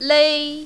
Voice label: "lei1", mandarin, female